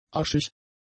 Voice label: "aschig", German; adjectives